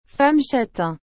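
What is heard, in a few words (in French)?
"femme châtain", French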